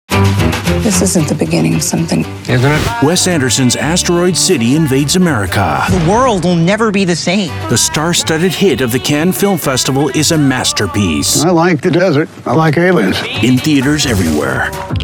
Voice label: VO Trailer

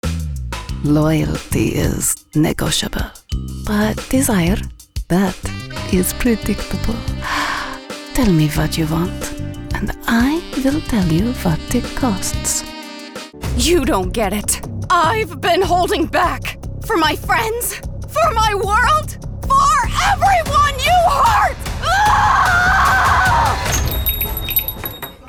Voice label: Animation

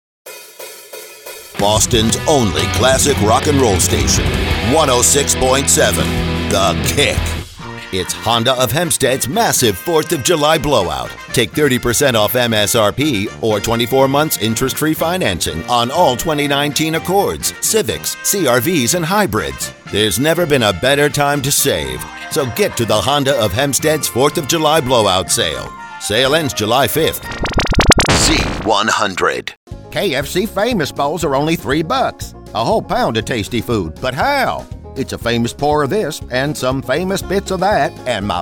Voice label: RADIO

